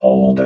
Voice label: "hold", robot